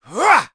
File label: Zafir Vox Attack, voices heroes en; kingsraid-audio